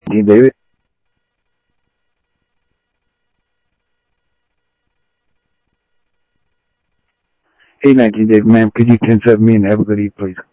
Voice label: Sheriff Central, scanner audio trunk

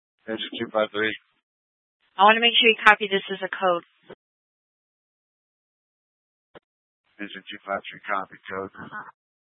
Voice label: Fire Departments, scanner

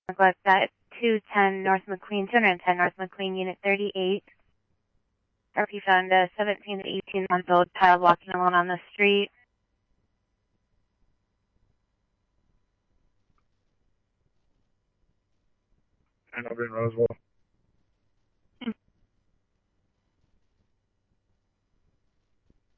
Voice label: Chandler Police, scanner

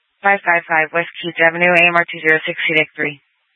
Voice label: FD Dpts VHF 156.240 Patch; TOPAZ Rgnl Wireless Co-op (TRWC)

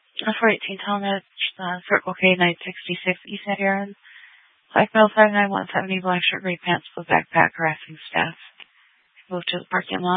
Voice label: Phx PD Dispatch, scanner

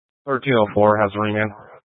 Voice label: Sheriffs Office Central Court Security, scanner; Maricopa County; Thompson Peak

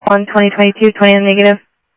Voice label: South Scottsdale Sheriff, scanner audio

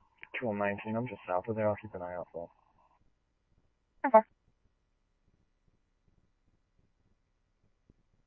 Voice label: scanner, Scottsdale Police; SPD - PD2; RWC; Thompson Peak High

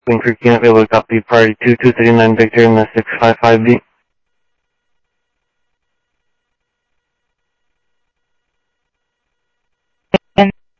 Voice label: scanner audio, Sheriff

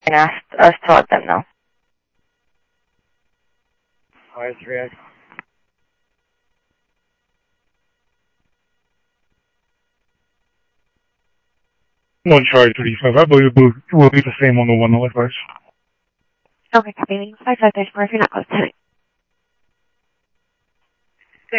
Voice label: Sheriff, scanner audio

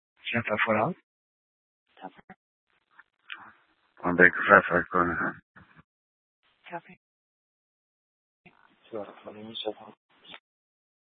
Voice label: Dispatch, scanner

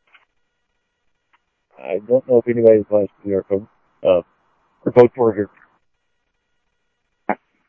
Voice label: scanner audio trunk

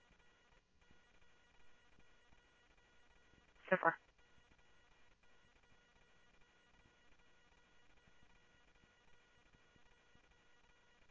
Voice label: Interop Mutual Aid, scanner audio

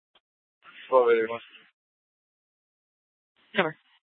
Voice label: Dispatch, scanner; Regional Wireless Cooperative (RWC) - Simulcast H- Scottsdale; Scottsdale PD

